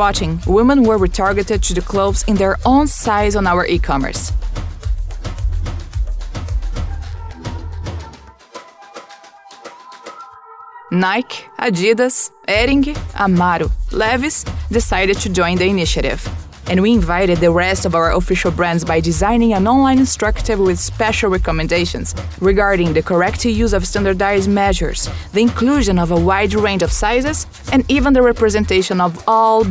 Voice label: english female brazilian portuguese voiceover; mercadolivre